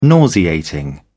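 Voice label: "nauseating", British English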